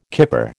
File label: "kipper", American